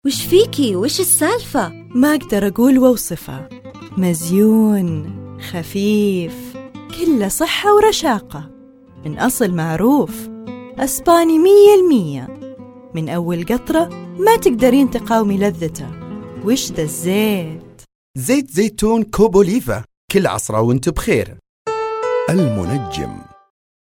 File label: gulf saudi arabia riyadh female